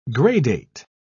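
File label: "gradate", English, British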